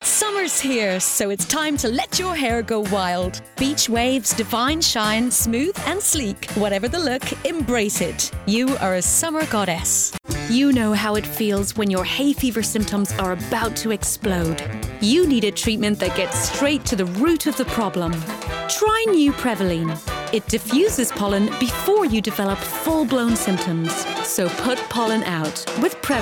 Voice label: female English Irish neutral Irish accent compilation; irish southern